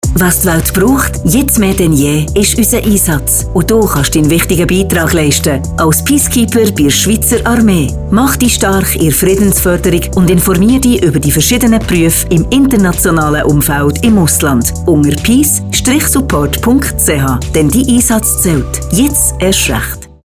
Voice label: SPOT; VBS; PEACEKEEPER

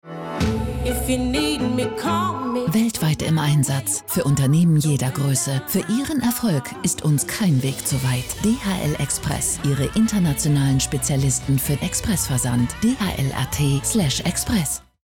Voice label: Radio Austria; DHL ISC

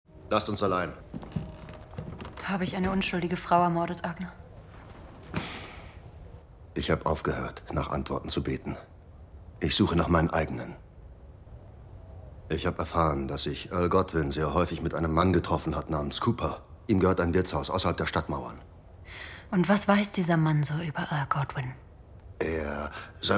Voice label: dubbing; Vikings Valhalla

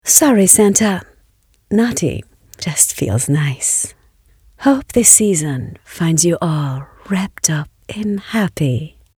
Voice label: Commercial Season en

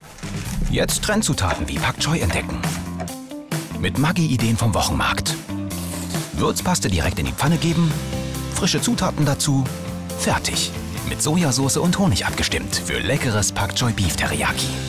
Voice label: TV Spot; Maggi

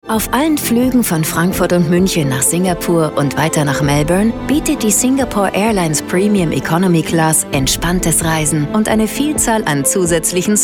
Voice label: Infomercial; Singapore Airlines

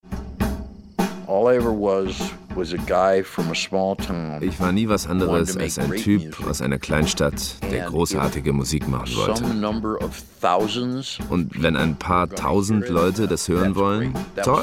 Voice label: Voice Over

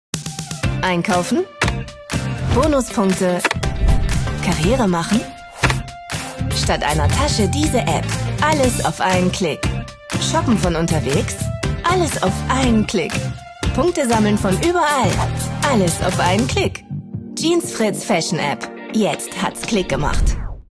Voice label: DE, Commercial (Werbung), German; Spot; Jeans Fritz

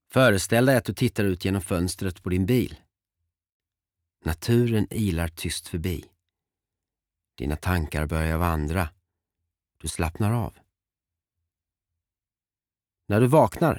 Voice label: Swedish Car commercial

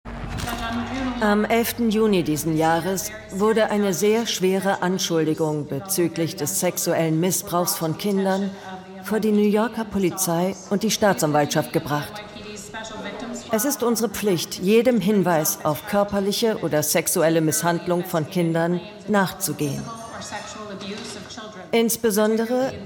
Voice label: Voice Over

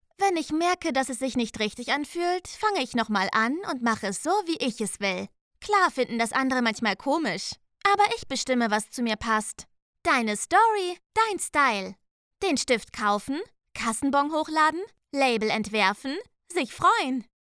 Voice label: Voice Over Teenager

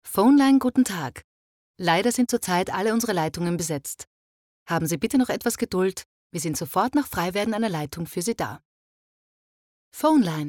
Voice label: phoneline

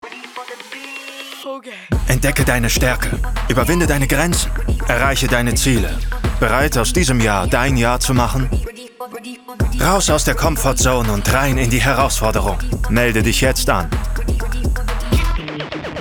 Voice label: Commercial Fitness; LOFT VOICES

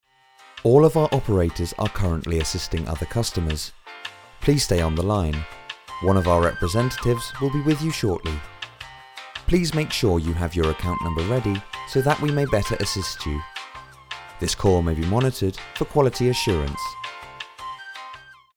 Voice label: Phone

